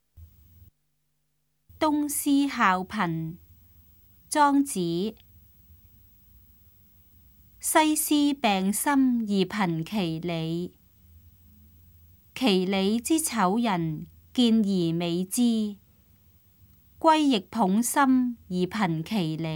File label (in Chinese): cantonese; 東施效顰; 粵語